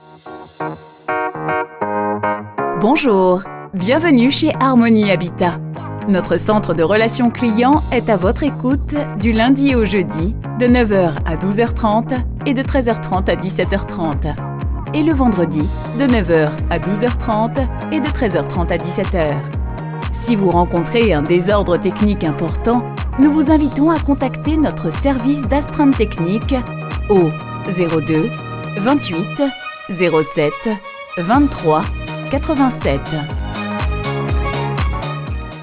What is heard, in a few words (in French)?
REPONDEUR; HARMONIE HABITAT